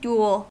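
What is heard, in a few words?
"duel", US English; pronunciation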